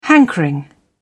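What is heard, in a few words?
"hankering", British English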